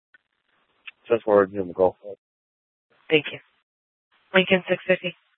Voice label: Sheriff, scanner

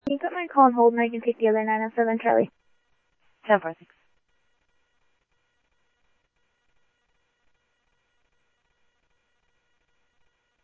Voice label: Mesa Simulcast, MESA PD, scanner audio; MesaPoliceDep

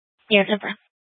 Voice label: Dispatch, Mesa PD; 4 Superstition Dispatch

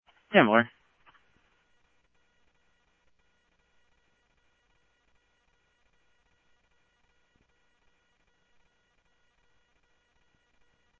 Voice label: Tempe Police, scanner audio

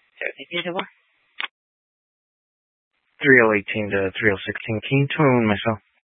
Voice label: Scottsdale Police, Simulcast

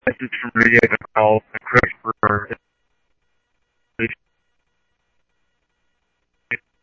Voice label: Police, scanner; H-Scottsdale; ScottsdalePolice

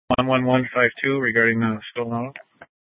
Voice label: PD Dispatch

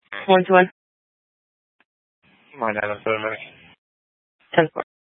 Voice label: Sheriffs Office, scanner

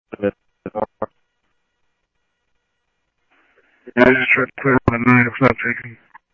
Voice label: Police, scanner audio trunk

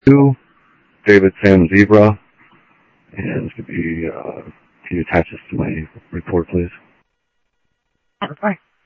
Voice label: Sheriff, scanner audio

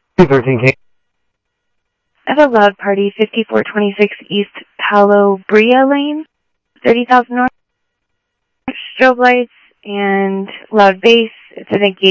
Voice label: Phoenix PD, scanner audio

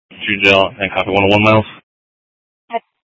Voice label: Dispatch; Scottsdale

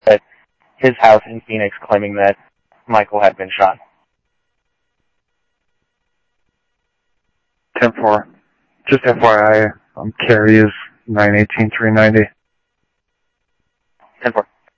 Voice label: Scottsdale PD Scottsdale Police, scanner audio

